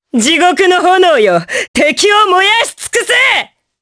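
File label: Skill, Japanese; Morrah; kingsraid; jp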